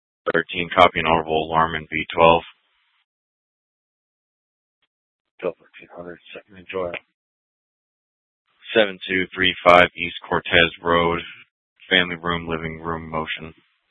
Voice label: Dispatch; Scottsdale

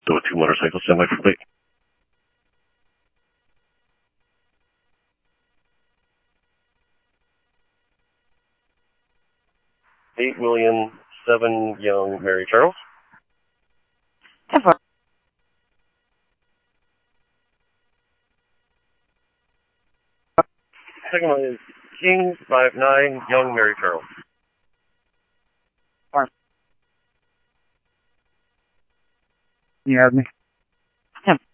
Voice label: PD Police, scanner audio

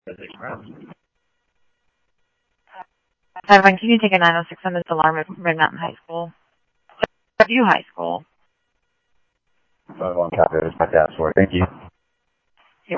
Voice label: Simulcast Police Dep, scanner audio trunk; Mesa Simulcast; MESA PD 3 Red Mt; MesaPoliceDep

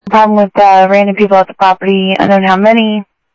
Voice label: Sheriff scanner audio

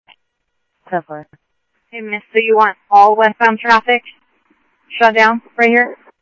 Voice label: Mesa Simulcast, MESA PD, scanner audio

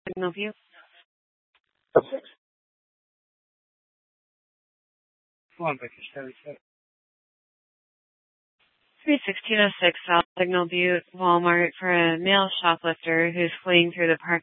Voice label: Superstition Dispatch, Mesa PD

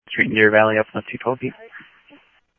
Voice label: Phoenix PD, scanner audio

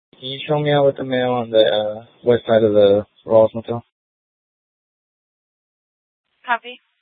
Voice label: Mesa PD Central Dispatch, scanner; TOPAZ Regional Wireless Cooperative (TRWC) - Mesa Simulcast

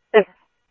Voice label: Police, scanner

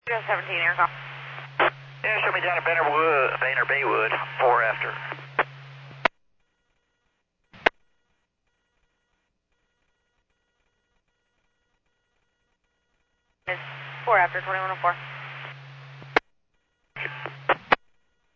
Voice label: Medevac, scanner; NativeAirAmb

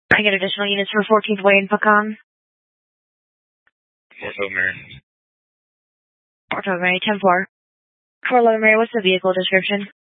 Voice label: Police Dispatch

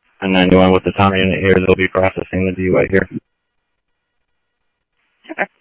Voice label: Police, scanner audio trunk; H-Scottsdale

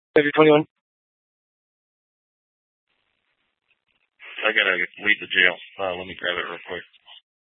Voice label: PD Dispatch

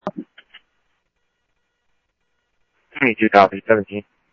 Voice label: Tempe Police, scanner audio; SimulcastF-Tempe

